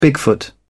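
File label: "bigfoot", English, British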